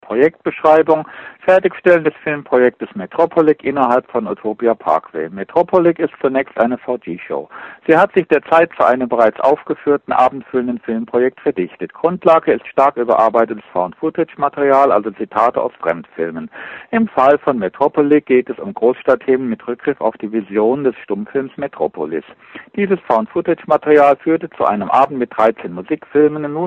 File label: Voicemail